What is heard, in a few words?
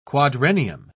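"quadrennium", English, British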